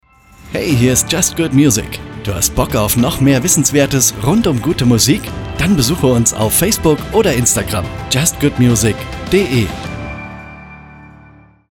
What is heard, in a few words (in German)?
Station ID